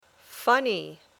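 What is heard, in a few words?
"funny", English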